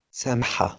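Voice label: "semh2", Proto-Indo-European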